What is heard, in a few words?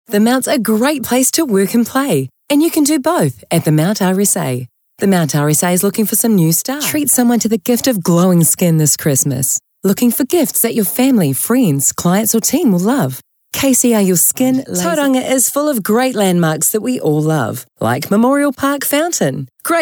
NZ